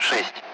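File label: Speech Sound Russian Common Allied Flight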